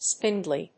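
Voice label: "spindly", English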